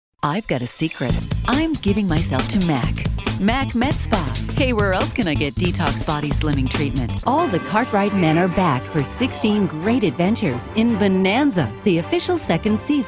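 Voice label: English USA female, english american voice over talent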